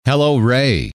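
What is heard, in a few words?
hello, male; trainer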